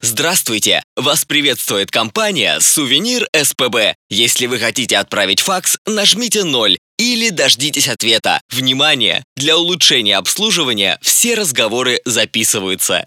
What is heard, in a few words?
M IVR